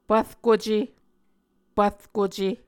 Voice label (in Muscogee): "pvrkuce", Muscogee